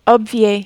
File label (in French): "obvier", French